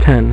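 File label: "ten", male; MacCribbage; InterStellar MacCribbage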